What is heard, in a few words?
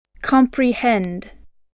"comprehend", english